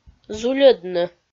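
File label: "зульӧдны", Komi-Zyrian, female; audio dict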